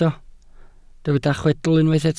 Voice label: "chwedl", Welsh

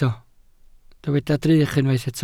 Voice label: "drych", Welsh

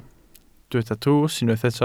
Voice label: "drws", Welsh

